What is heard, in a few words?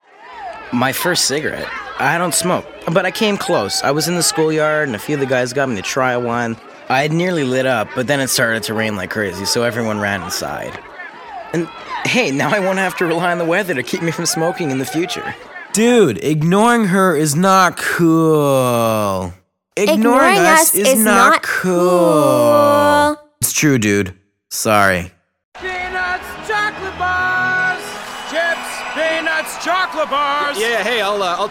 commercial male